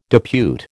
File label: "depute", American